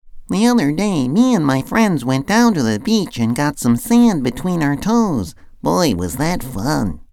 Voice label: Cartoon voice; CharacterVoices